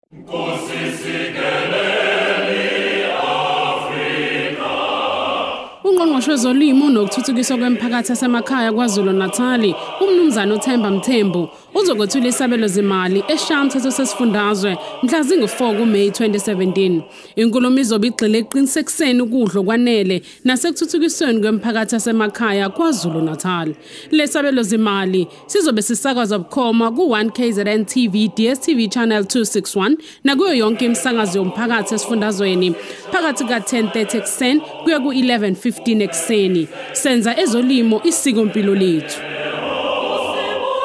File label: Budget Speech Promo